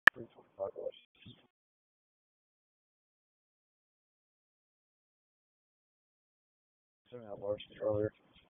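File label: Police Northeast District Dispatch; Raleigh; p25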